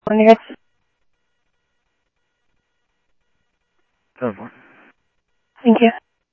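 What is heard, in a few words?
Phoenix police department, scanner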